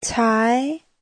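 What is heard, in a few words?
"cái", Mandarin Chinese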